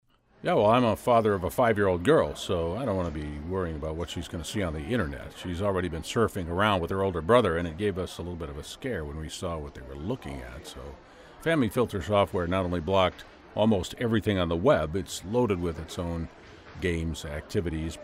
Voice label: Testimonial